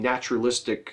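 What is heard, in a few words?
"naturalistic", English, American accent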